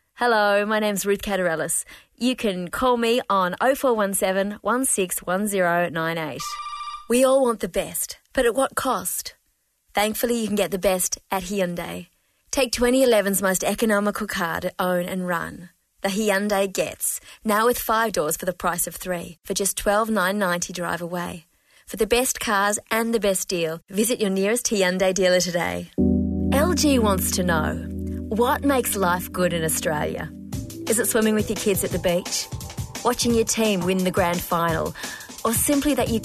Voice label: voice over

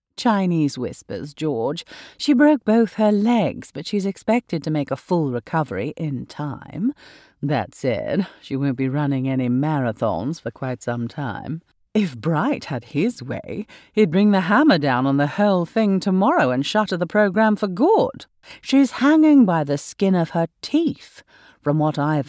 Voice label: Posh Nasty Lady gaming

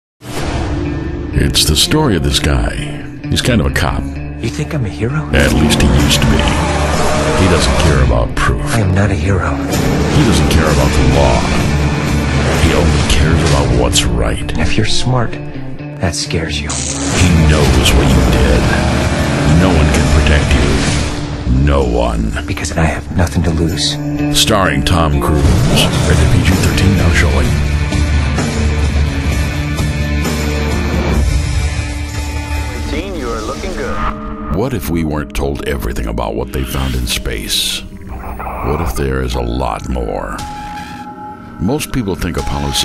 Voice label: Trailer

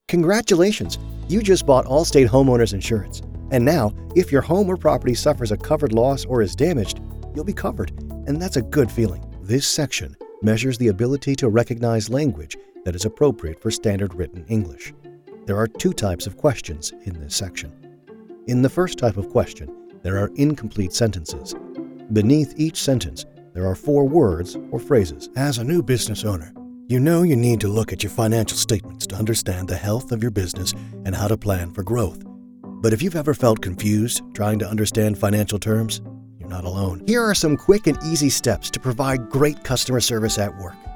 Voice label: E-Learning

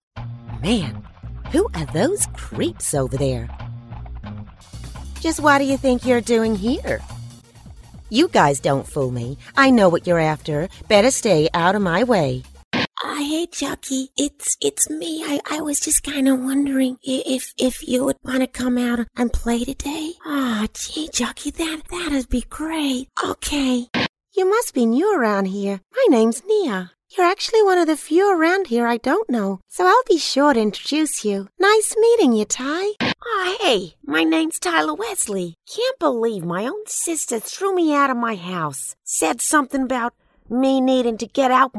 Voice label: Kids Characters

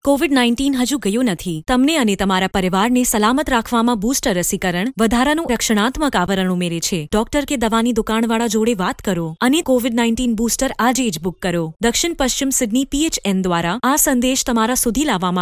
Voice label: Gujarati

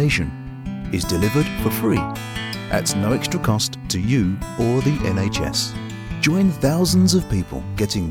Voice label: Pharmacy Brand TV Commercial

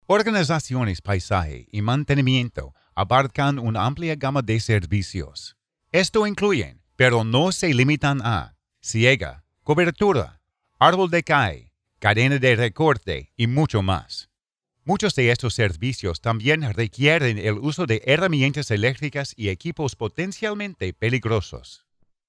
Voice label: Spanish

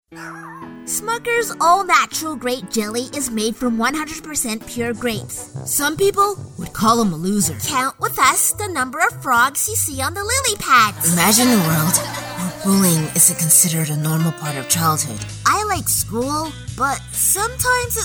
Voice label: Kids Commercial Short

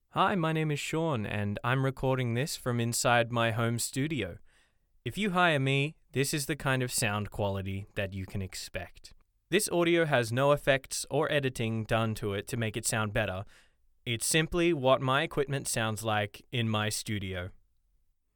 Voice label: Dry Sample home booth

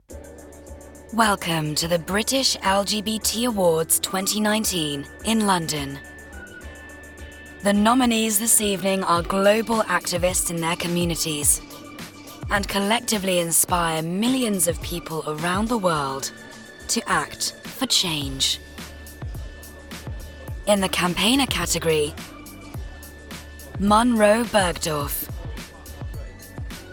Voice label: Voice Of God

